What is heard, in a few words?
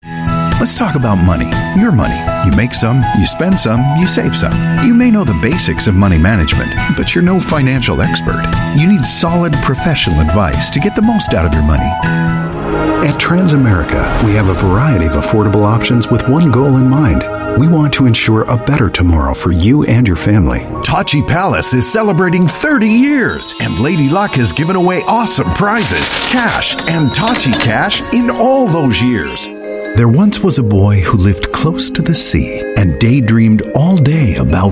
US English Commercial